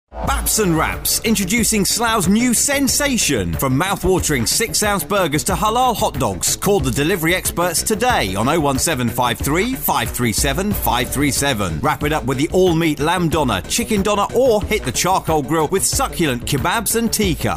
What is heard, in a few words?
Voiceover